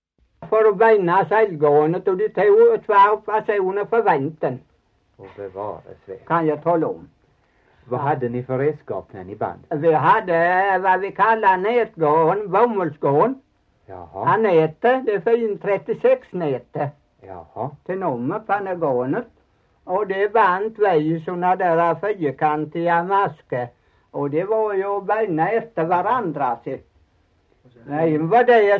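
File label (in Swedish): Torhamn Blekinge, Svenska sagor dialekter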